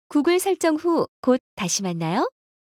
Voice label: google step, ko; Samsung Galaxy S10; SuwScriptPlayer